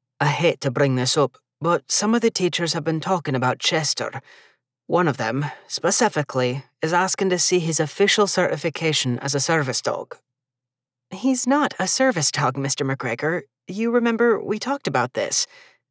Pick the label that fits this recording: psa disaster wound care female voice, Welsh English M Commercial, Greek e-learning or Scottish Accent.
Scottish Accent